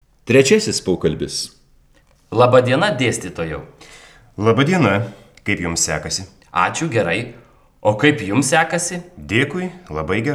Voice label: Dialog